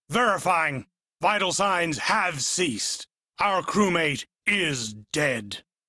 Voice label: Crew Died, Male; en; Warframe; Railjack Crew; Arbiters Hexis